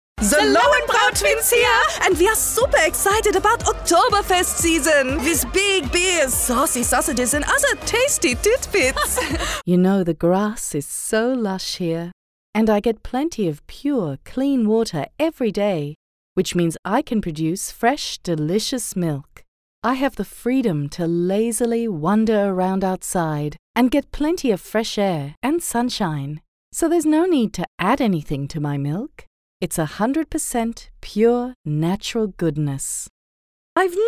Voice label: Character

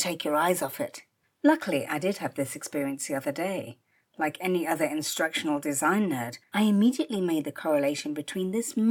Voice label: E-learning and Movies; podcast

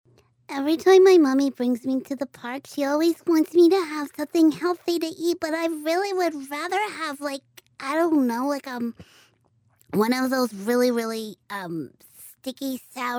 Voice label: Child Voice

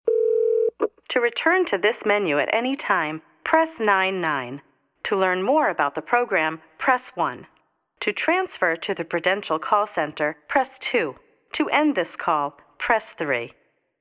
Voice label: Voice Mail

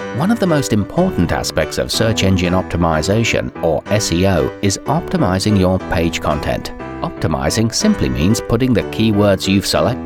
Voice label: Training Educational Voice-over

